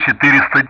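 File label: Speech Sound Russian; DCS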